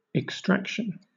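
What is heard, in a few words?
"extraction", British English; pronunciations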